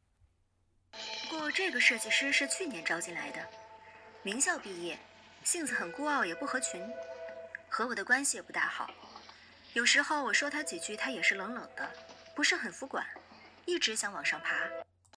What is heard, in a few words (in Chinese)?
配音漫画